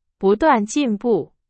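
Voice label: Chinese; hsk3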